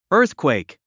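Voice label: "earthquake", English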